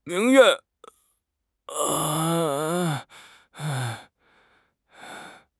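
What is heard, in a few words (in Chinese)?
"宁愿", Chinese; HSK6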